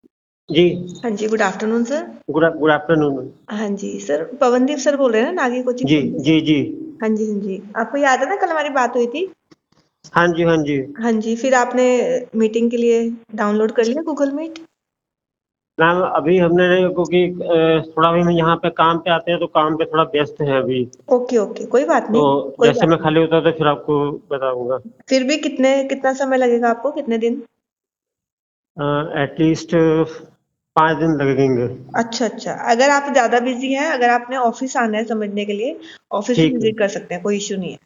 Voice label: call recording